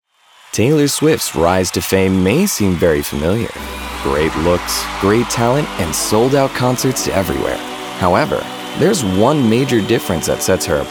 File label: Narration, voice